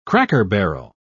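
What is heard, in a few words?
"cracker-barrel", British English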